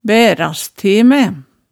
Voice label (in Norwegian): "bærast te mæ", Hemsedal